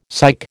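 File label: "psych", American English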